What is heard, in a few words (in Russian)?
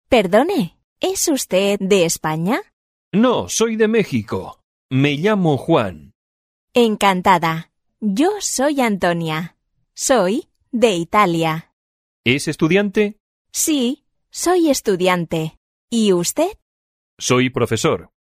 urok dialog